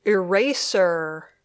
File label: "eraser", English